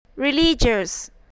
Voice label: "religious", spelling voice